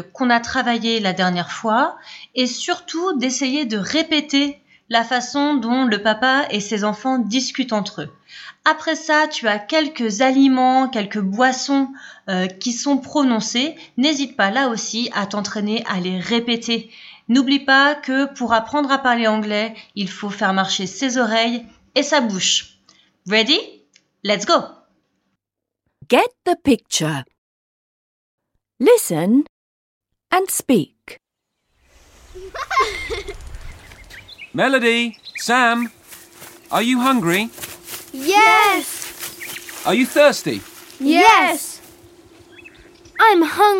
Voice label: english radio